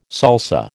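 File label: "salsa", American English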